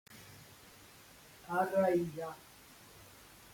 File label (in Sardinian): "Arraiga", sardu